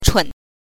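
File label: "chun", Mandarin Chinese pinyin, neutral tone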